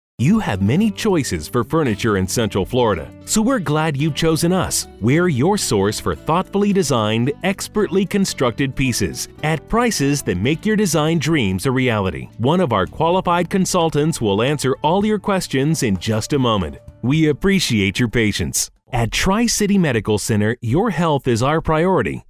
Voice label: Phone